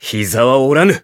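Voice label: Voice healed, Japanese; claude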